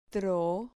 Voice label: "tro", Spanish